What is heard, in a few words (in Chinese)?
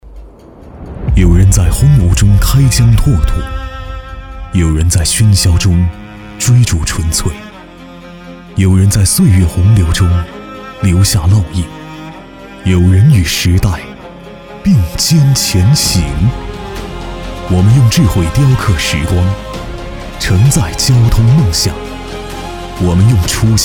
宣传高端大气